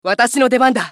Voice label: ファイター男 選択

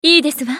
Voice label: ウォーロック女 選択

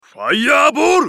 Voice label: 男･ファイアーボール; ウォーロック

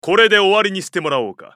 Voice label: 男･アビスフレア; ウォーロック

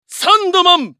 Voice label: ウィザード男; サンドマン

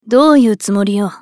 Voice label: スカウト女 選択

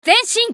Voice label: ソルジャー女 移動; リボルドウェソルジャー

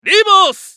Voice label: ウィザード男 リバース

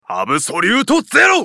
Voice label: ウォーロック男; アブソリュートゼロ

